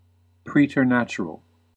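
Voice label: "preternatural", US English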